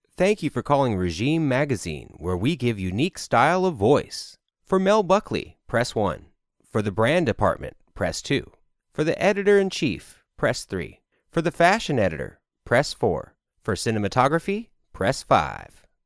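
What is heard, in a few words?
American English, male, voice over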